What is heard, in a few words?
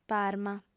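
"parma", female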